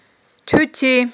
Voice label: "туьти", Aghul

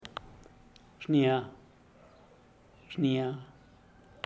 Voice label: "xnia", zapoteco teotitlan; rojo

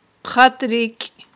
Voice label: "хъаттрикI", Aghul

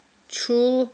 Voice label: "чул", Aghul

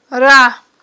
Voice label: "рагI", Aghul

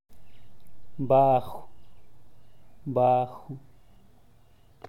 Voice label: "baajuh", zapoteco teotitlan

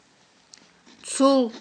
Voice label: "цул", Aghul

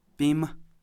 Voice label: "knee", kham

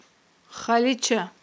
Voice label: "халича", aghul

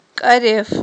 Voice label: "кIареф", Aghul

